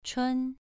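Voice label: "chun" Mandarin, second tone, pronunciation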